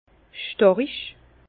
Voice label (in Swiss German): "starig", Bas Rhin; fort; vin